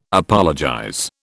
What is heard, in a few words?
"apologize", British